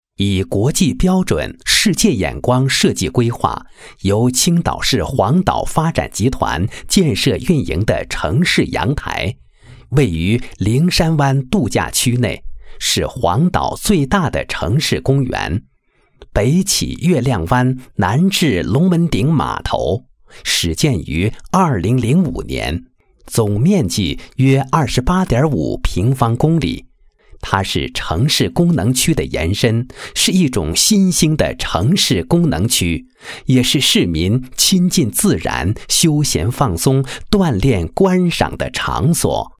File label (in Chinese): 男, 国语男声配音; 青岛样